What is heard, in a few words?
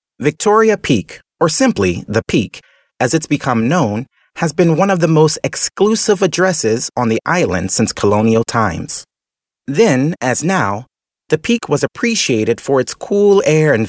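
American English male corporate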